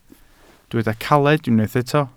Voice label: "caled", Welsh